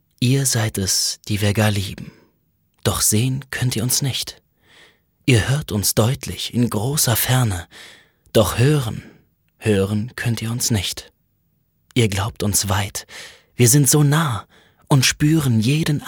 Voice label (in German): poetisch, maenner